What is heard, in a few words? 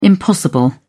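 "impossible", British English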